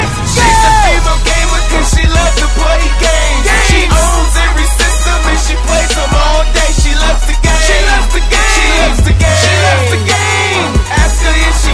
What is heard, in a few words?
Female Gamer